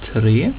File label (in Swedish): "tre", swedish